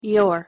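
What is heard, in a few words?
pronunciation "your", English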